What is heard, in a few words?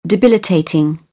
"debilitating", British; ə - ɪ